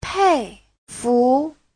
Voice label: "pei4fu2", chinese; 佩服; bái phục